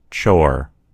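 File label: "chore", American English; gcompris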